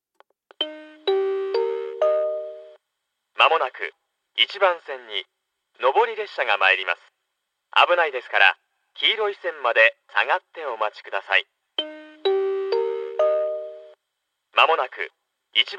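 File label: platform 3 approaching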